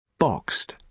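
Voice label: "boxed", British English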